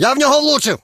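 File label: attack, Ukrainian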